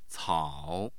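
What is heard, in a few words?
"cao", Chinese, third tone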